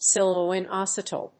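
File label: "Scyllo-Inositol", English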